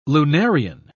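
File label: "lunarian", British English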